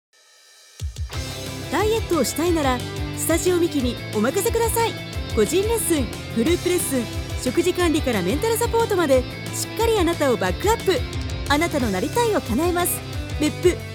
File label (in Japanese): 快活, 女性ナレーター